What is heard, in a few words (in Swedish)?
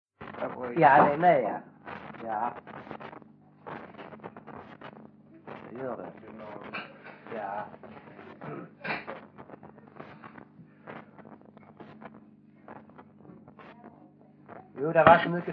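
Vånga Skåne, dialekter, svenska sagor; Folkminnen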